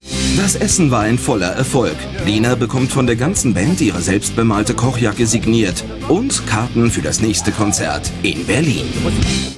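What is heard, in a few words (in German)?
Kommentar, Männer; Restaurant sucht Chef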